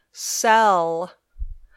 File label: "sell", English